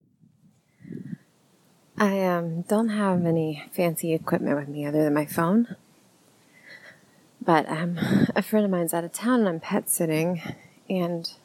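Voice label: female for any, Cemetery Walk, in the woods, rambling, birds, nature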